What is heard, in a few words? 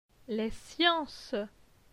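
"Les sciences", French; phonetique; les consonnes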